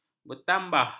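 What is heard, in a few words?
"bertambah", Malay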